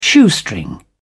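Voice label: "shoestring", British English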